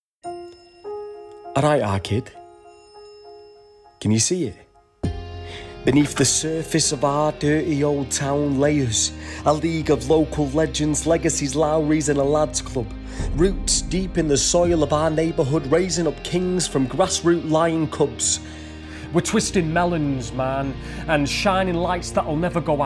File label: Drama